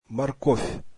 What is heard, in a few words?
russian carrots